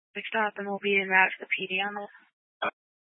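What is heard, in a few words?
Police Dispatch, scanner audio recording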